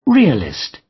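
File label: "realist", British; Life, leisure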